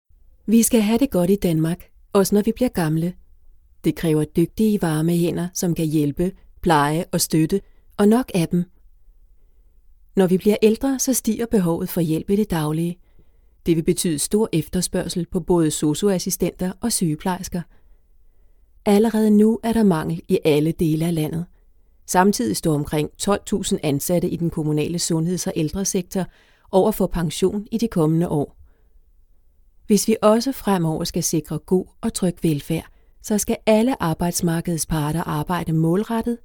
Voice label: danish documentaries